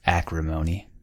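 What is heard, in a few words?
"acrimony", US English; pronunciation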